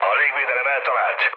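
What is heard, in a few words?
Hungarian damaged aa mood low, speech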